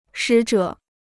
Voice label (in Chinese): "使者", Chinese